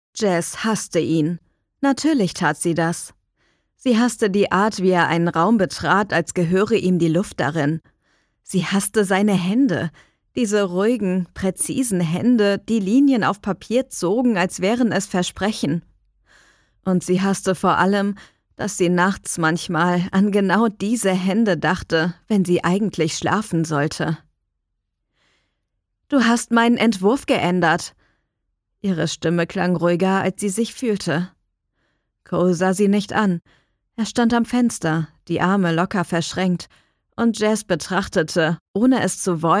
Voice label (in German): Hoerprobe, sprecher